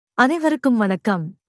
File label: Tamil Greetings everyone